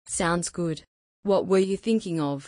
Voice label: female